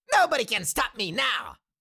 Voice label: Cartoon little monster voice, "nobody can stop me now"